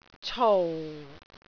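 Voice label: "cou4", Cantonese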